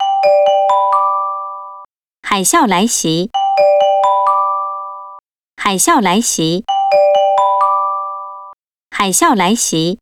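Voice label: translated tsunami, Chinese; iOS 27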